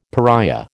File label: "pariah", American